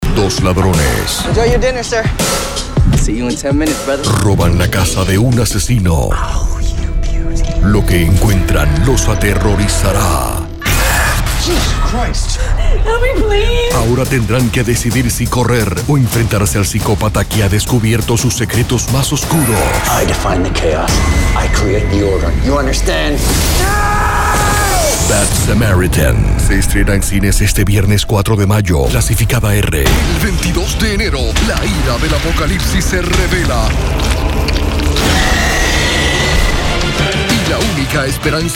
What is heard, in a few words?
Spanish Movie Trailers